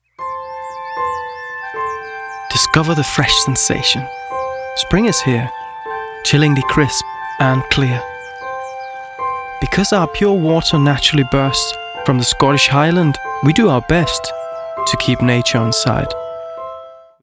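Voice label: Danish English Male Ad; accent of denmark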